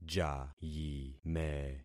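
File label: "jayime", concatenated syllables; Affixing experiment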